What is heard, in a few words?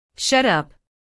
shut up polite